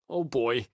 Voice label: pedestrians hit disgust; donnie; Blind Drive